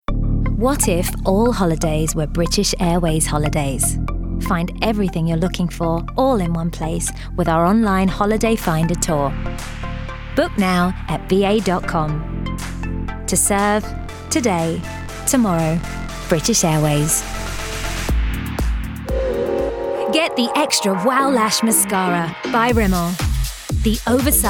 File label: Commercials; reels